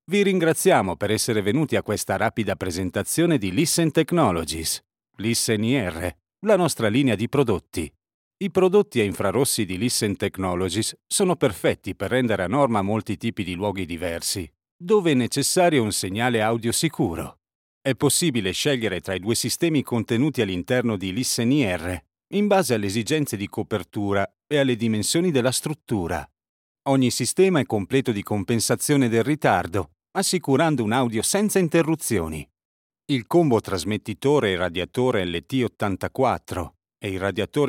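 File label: Italian product video; LISTENTECH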